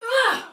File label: Attack, Soldier Female, Battle; Sinecure